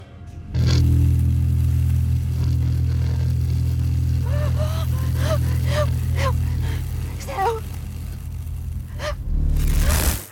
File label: Audrey reverse; No Knock No Doorbell